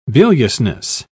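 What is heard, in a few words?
"biliousness", British English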